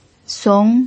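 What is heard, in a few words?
"song", Mandarin Chinese pinyin, second tone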